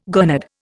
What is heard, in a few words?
"gurnard", Indian